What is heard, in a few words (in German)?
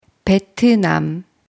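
"Vietnam", German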